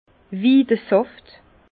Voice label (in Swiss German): "widesaft", Bas Rhin; jus de saule; vin